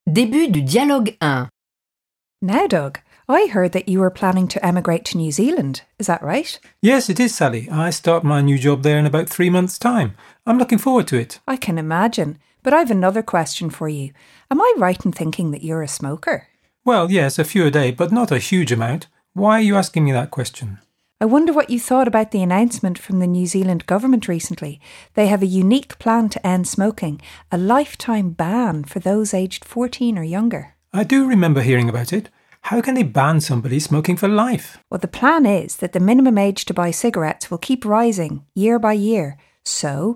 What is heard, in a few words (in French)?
Anglais